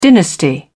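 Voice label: "dynasty", British English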